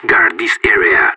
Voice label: radio, hold, separatist; bot